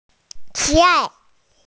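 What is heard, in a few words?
"like", Mandarin Chinese, female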